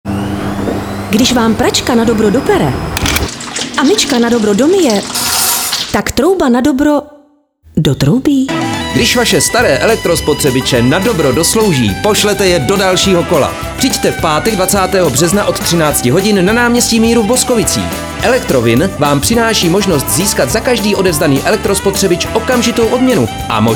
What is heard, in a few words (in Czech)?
radio; ELEKTROWIN; Zatocte s elektroodpadem